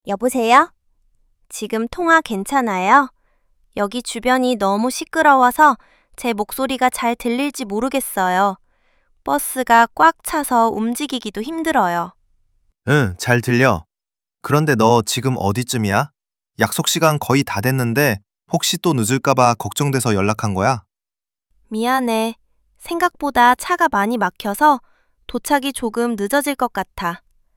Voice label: phone call